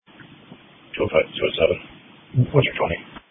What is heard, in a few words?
Police Dispatch